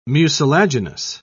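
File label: "mucilaginous", British English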